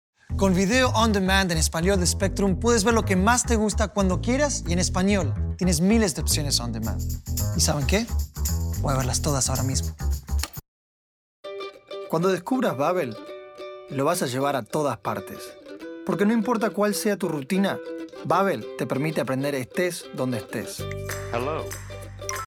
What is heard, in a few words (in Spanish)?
Spanish; Commercial Demo; Voiceover